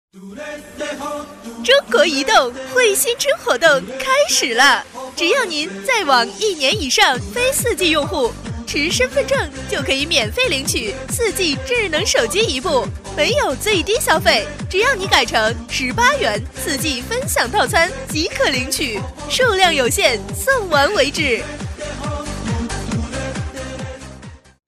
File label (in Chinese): 女 中国移动惠新春活动, 国语女声配音 彩铃广告